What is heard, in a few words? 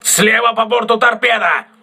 voice message torpedo left, crew ships russian